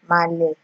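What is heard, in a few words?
"maale", Nigerian Pidgin, female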